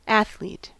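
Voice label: "athlete", American English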